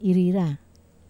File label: "rira", Wamesa